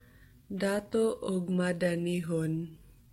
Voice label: Cebuano